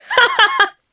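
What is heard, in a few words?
Vox Happy, Japanese; Morrah; kingsraid; jp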